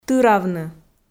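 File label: "тыравны", Komi, female; audio dict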